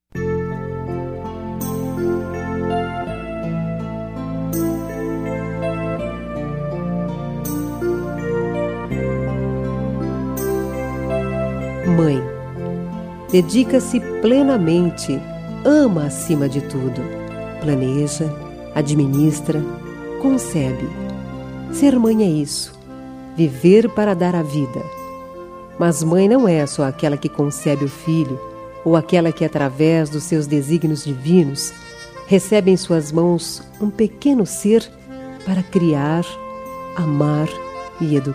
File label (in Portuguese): Dia das Maes pra Tias, F